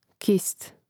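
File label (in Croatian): "kist", Hrvatski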